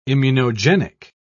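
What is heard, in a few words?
"immunogenic", British English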